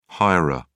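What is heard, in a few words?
"hirer", British English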